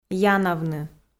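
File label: "янавны", Komi, female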